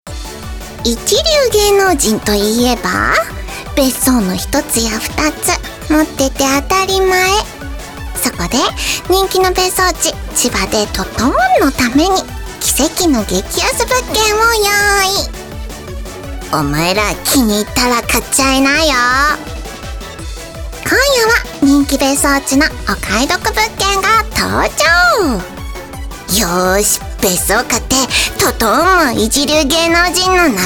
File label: narration